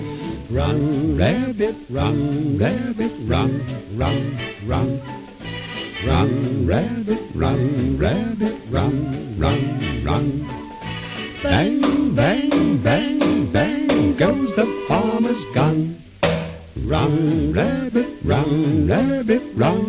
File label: Echo; trolling meme sound effect